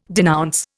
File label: "denounce", Indian